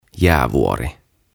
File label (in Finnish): "Pelikaani" "Pelican"; OTAVA KONSERNI